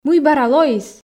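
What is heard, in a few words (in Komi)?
мый бара лоис, Komi, female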